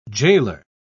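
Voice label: "jailor", British English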